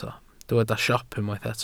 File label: "siop", Welsh